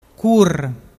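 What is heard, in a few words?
"cur", Vernazzese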